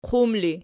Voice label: "qum", Archi